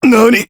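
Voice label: "nani", Japanese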